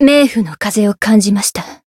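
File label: Voice win barely, japanese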